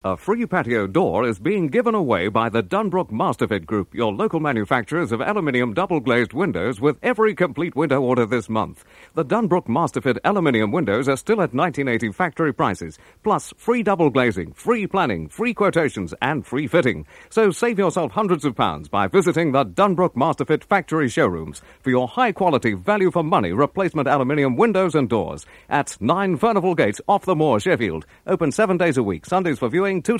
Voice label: Radio Advert; Radio Hallam; Dunbrook Masterfit